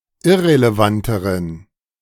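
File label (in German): "irrelevanteren", German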